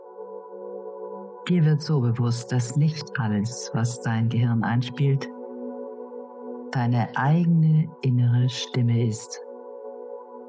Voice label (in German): Narzissmus Dialog Musik